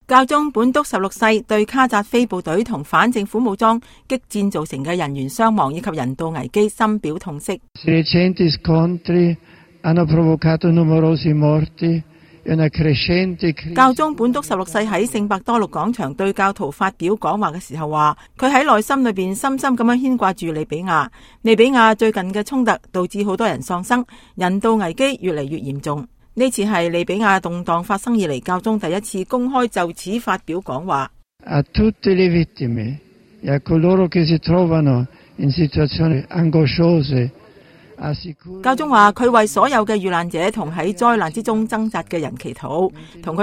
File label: cantonese